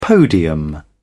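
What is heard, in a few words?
"podium", British English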